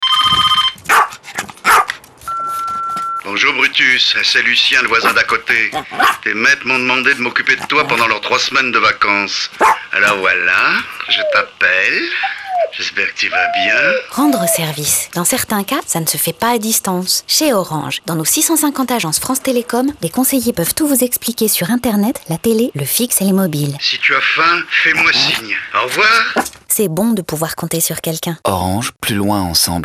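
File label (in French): spot, spots radio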